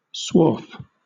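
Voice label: "swarf", British English; pronunciations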